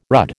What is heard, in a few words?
"rudd", American